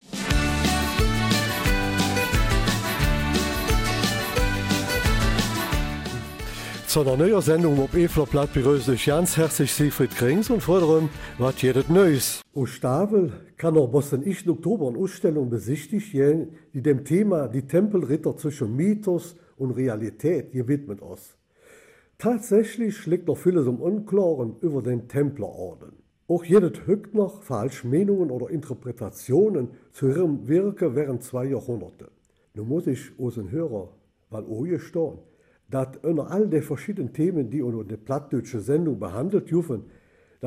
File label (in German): Eifeler Mundart; Die Tempelritter - Zwischen Mythos und Realität